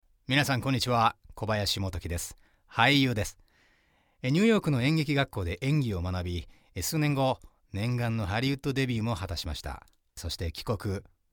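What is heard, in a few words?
japanese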